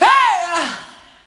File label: "hey", Voice